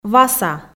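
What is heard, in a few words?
"васа", Komi, female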